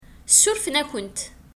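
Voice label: Kabyle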